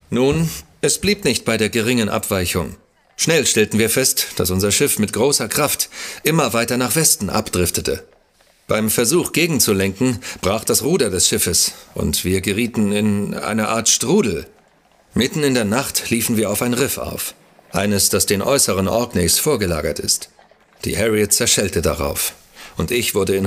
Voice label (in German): DE Hoerspiel